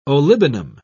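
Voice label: "olibanum", British English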